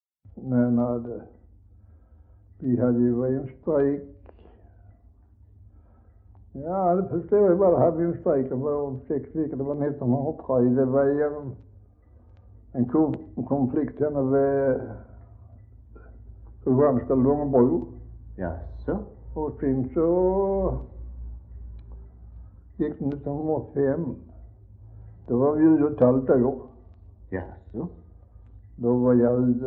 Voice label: Västra Ingelstad SK, dialekter; Folkminnen

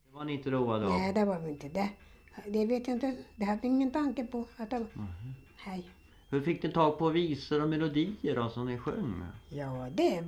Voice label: dialekter; Folkminnen

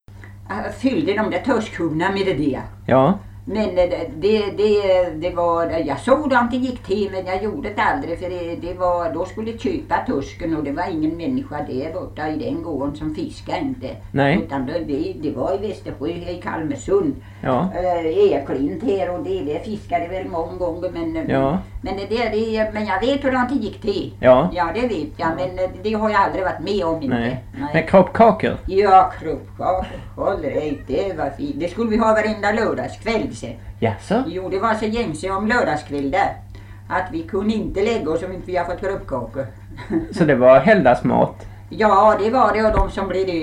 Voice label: Alboke, dialekter

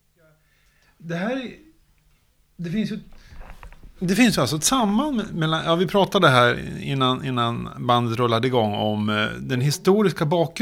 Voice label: Härkeberga, dialekter; Svenska sagor; Folkminnen

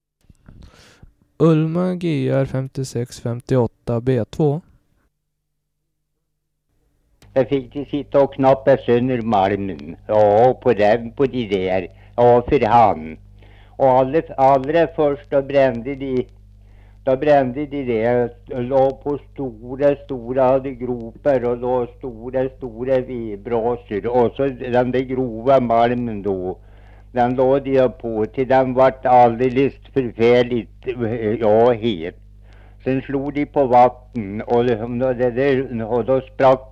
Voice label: Viker, dialekter; Svenska sagor; VSTM; Folkminnen

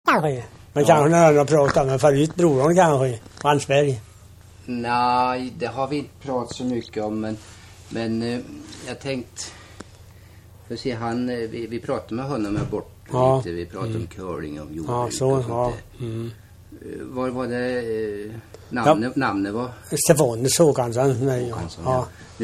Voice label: Munkfors, dialekter; Svenska sagor; Folkminnen

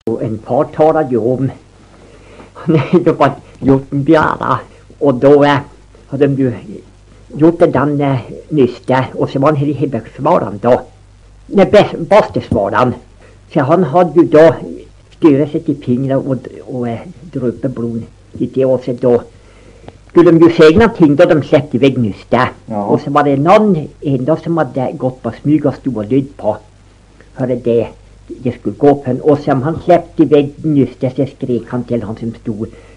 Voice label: Dorotea, dialekter

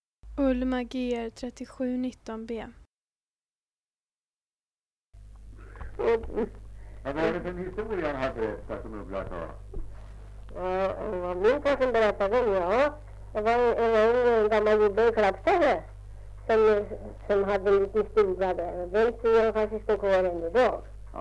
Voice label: Österfärnebo, dialekter; Svenska sagor; Folkminnen

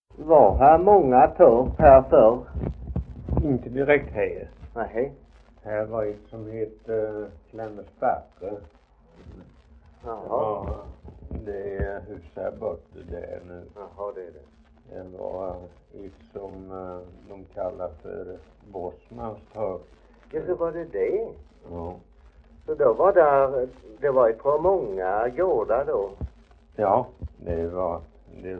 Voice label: Idala, dialekter; HALL

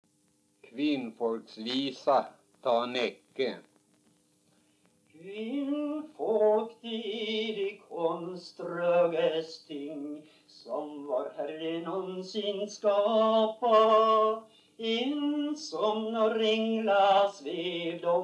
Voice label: dialekter; Folkminnen

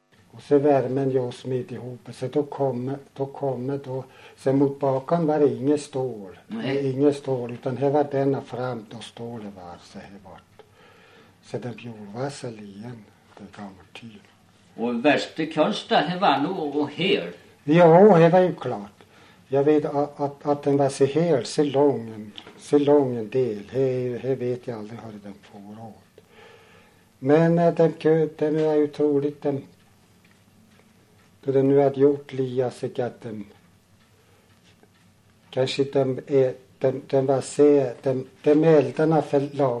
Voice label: dialekter; Folkminnen

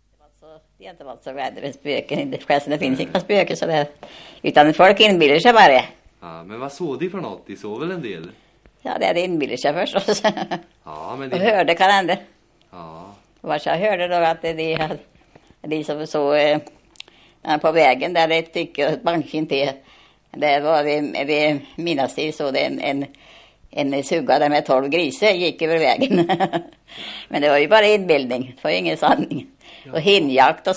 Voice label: Landeryd, dialekter; Folkminnen

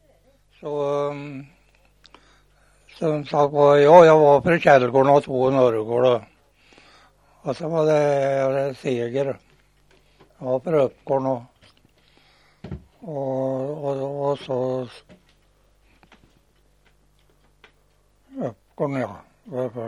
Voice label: Askeby, dialekter; Folkminnen

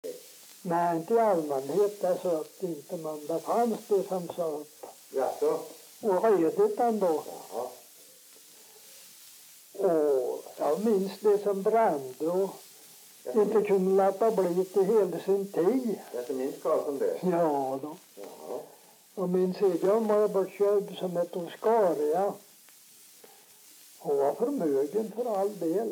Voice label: dialekter; Folkminnen

